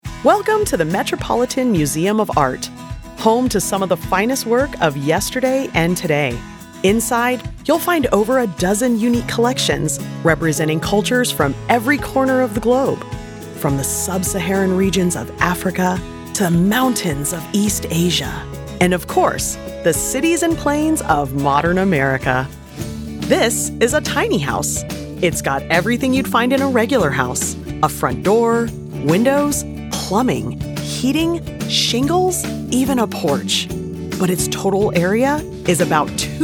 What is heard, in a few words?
Narration